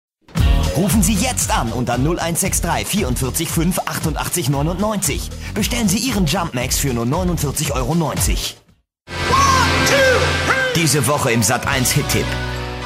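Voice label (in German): advert, Dynamisch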